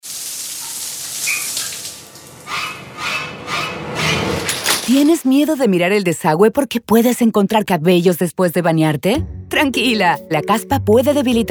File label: Radio; Redbull; Ducha